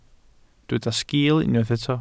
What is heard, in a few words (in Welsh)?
"sgil", Welsh